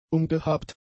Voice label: "umgehabt", German; adjectives